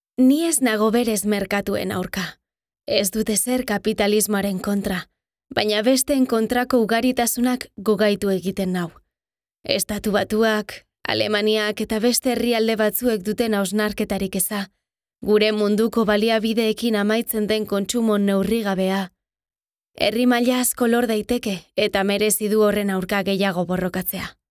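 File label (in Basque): NARRATIBO Basque documentary TESTIMONIO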